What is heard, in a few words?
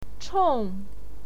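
"chong", Mandarin Chinese, fourth tone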